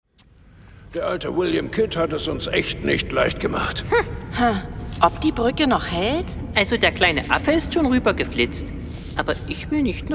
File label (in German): Hoerspiel Piraten